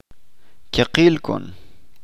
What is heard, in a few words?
"todo el mundo", Chalchiteko